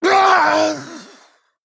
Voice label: Male Troll Death